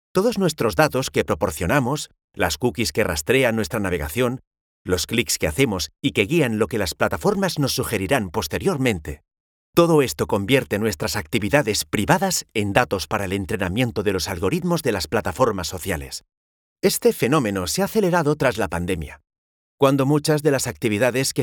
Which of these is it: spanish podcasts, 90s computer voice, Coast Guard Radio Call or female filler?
spanish podcasts